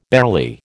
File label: "barely", American